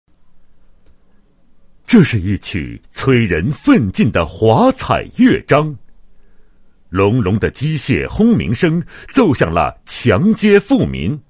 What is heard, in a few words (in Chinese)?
男 解说; 密市醴泉街道